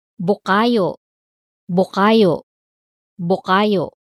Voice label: "Bucayo", pronunciation